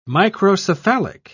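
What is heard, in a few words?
"microcephalic", British English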